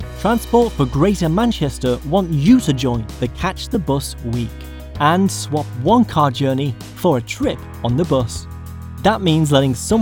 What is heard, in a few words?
VO reel